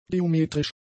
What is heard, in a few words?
"geometrisch", German; adjectives